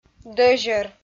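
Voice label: "дӧзьӧр", Komi, female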